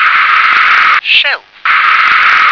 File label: "shelf", English